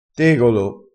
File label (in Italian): "tegolo", vocabolario dialettale